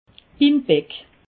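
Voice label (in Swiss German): "bimbeck", Alsatian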